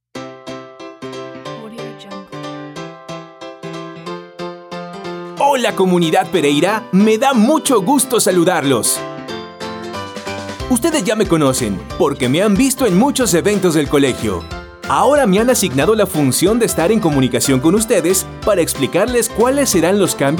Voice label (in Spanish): LOC ELEARNING Y TUTORIALES PANDEMIA